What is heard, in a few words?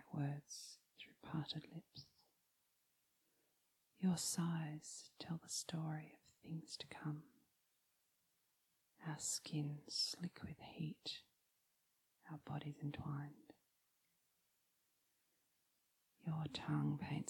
Erotic poetry, Kissing, Sensual; Conversation; Desire; Lust